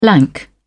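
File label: "lank", British English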